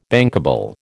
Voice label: "bankable", American